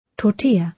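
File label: "tortilla", British English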